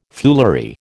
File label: "foolery", American